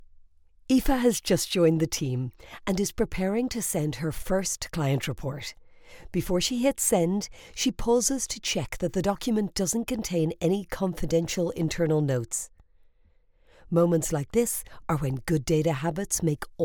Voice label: Workplace, E-Learning, Workplace Scenario, Irish female voiceover